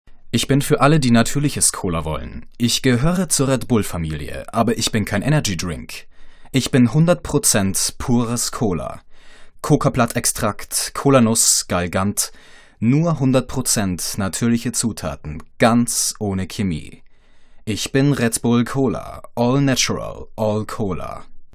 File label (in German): SPRECHERDEMO Cola Werbung; voice